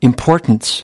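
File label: "importance", English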